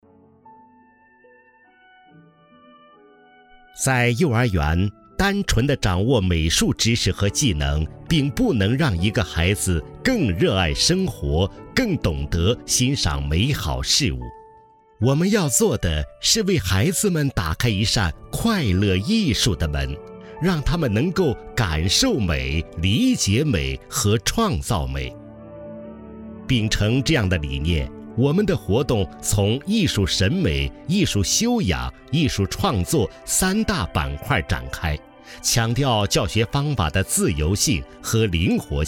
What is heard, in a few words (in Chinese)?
男 专题 幼儿园专题片